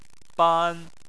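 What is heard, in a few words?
"ban" Chinese, first tone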